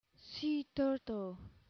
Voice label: "sea turtle", English